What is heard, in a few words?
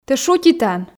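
"тэ шутитан", Komi, female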